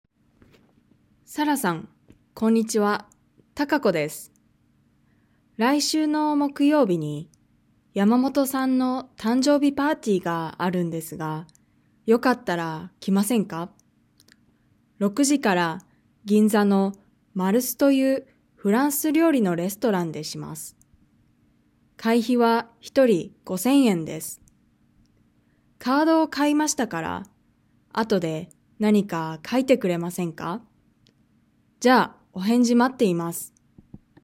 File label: Reading natural; Nakama; OJAD